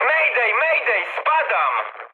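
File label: Polish aircraft destroyed mood high; War Thunder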